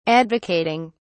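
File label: "advocating", British English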